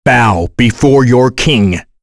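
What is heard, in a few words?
Vox Victory, voices heroes English; Kain; kingsraid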